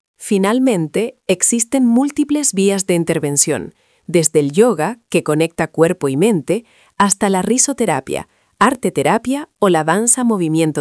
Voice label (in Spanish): locucion